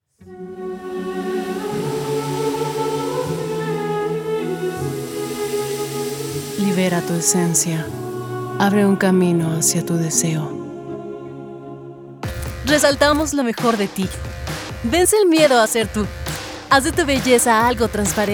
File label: Woman